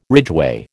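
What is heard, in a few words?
"ridgeway", American English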